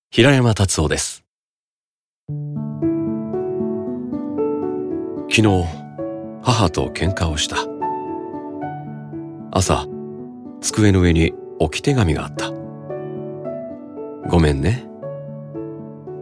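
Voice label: commercial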